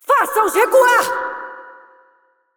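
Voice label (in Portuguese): assist, Brazilian Portuguese; VO; Irelia; bloom minigame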